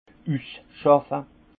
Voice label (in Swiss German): "usschaffe", alsace; vin; dégrossissage